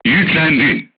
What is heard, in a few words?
voice message charged, crew tanks turkish